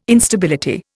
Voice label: "Instability", Indian